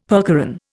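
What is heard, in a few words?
"percheron", Indian English; Dictionary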